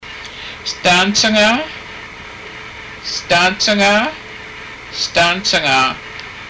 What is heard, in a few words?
eight, haida